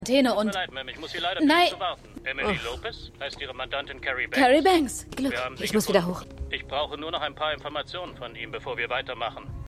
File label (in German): Telefonstimme; Stimmproben